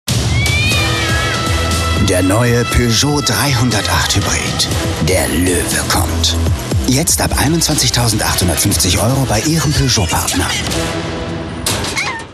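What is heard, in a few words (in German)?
Werbung, professioneller Sprecher; Peugeot Lion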